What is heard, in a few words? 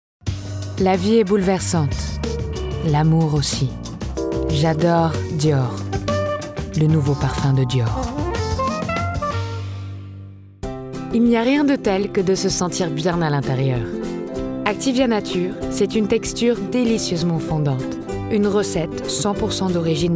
French Commercial